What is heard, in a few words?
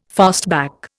"fastback", Indian